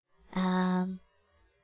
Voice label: Kid; Fat Penguin